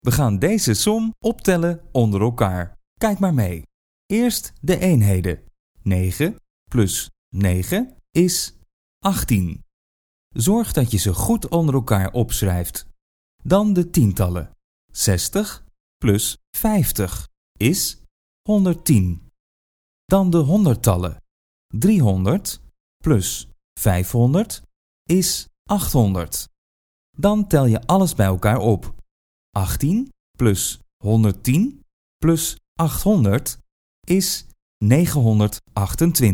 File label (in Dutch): Voorlees